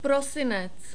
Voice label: "prosinec", czech